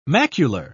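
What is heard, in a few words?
"macular", British English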